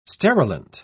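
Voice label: "sterilant", British English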